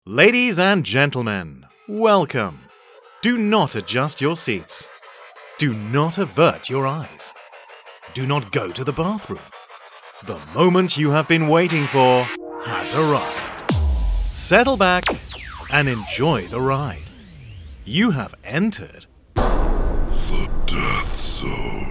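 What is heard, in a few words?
British English, General; GoLocalise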